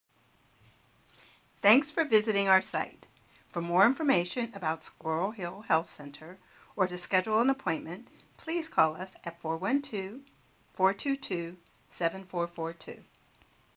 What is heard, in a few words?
Website Voice